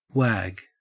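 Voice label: "wag", Australian English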